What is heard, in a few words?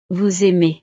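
"vous aimez", French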